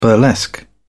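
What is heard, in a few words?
"burlesque", British English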